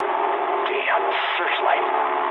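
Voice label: English searchlite mood low; War Thunder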